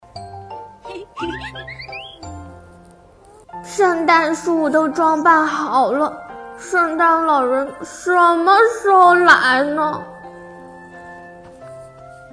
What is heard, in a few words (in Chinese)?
多角色; 恐龙一家过圣诞